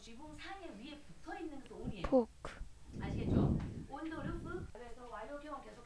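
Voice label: "book", spoken word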